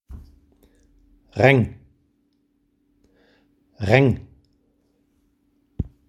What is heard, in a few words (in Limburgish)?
Dialect randen